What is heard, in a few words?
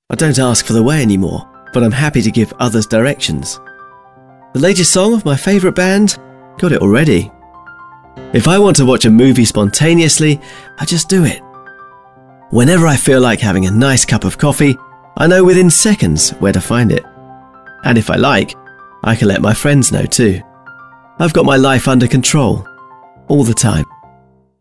Phone with music